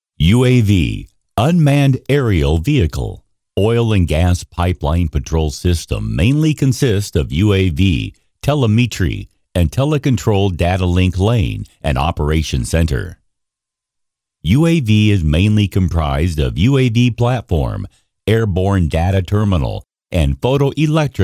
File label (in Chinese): 美籍美式男号大气沉稳浑厚干音